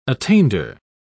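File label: "attainder", English, British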